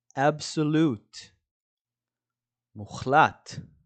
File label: "absolute" – "מוחלט"